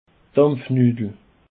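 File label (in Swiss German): "dampfnudel", Alsatian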